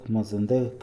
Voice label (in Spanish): "dirección", Mixe, hombre; INALI